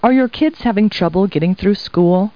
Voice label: people, kid